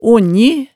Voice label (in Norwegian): "onji", hemsedalsmaalet, tale